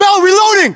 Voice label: inform reloading bell, english, us